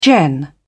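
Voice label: "gen", British English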